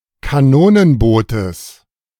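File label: "Kanonenbootes", German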